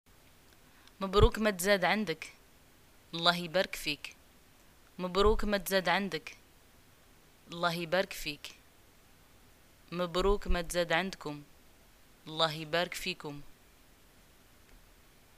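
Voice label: Moroccan greeting new baby, arabic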